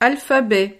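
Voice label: "alphabet", French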